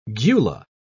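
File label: "gula", British English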